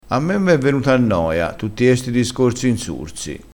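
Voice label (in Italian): "insurso", crespinese dialettale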